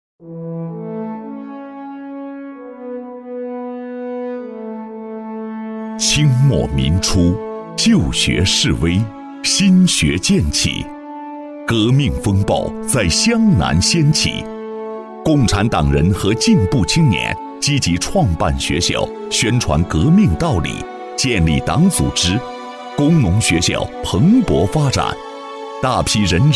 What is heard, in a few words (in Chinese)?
男, 宣传片, 百年郴州教育梦